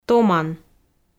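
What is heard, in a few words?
"томан", Komi, female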